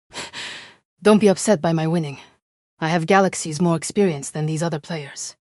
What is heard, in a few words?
happy; aiko